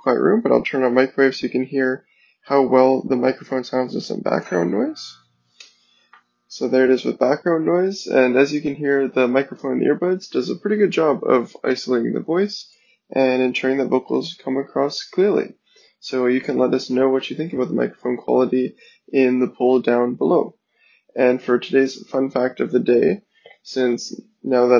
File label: Nothing Ear 1 mic test